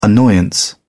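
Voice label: "annoyance", British English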